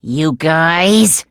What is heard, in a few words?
angry eng; monokuma